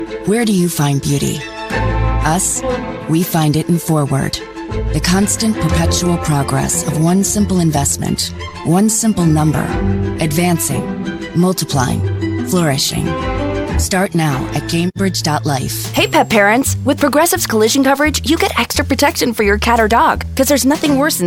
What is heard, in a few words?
comm, vo talent